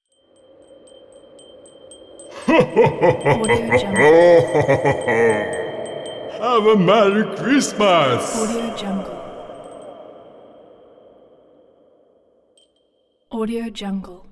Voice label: santa laughs and wishes a merry christmas

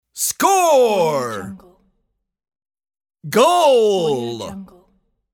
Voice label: male voice score goal

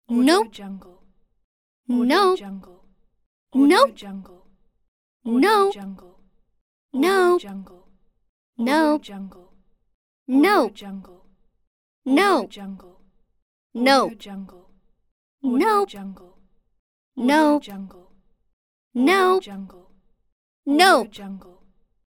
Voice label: girl saying nope pack

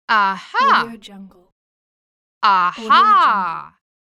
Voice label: female saying aha

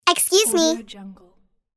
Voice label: cartoon saying excuse me

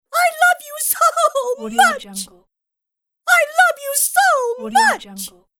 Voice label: female character i love you so much

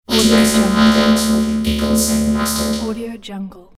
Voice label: robot speech

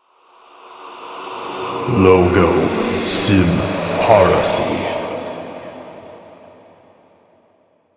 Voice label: dark game voice spell logo panning reverb; sim parasy; reverse male

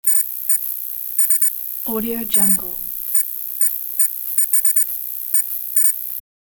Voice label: robot counting

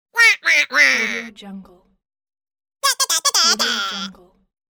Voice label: cartoon mocking voice

